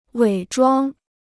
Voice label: "伪装", Chinese